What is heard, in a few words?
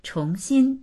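"chong2xin1", Mandarin Chinese; 重新; 新たに、もう一度; dictionary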